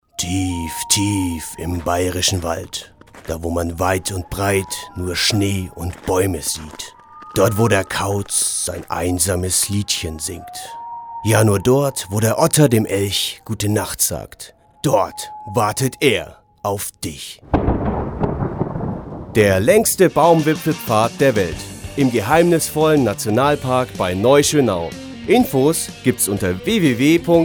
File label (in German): Werbung; Erlebnis Akademie